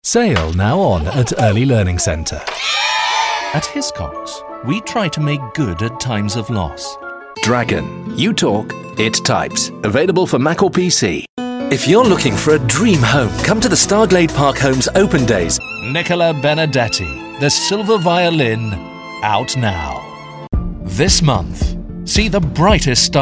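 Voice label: UK English Commercial; GoLocalise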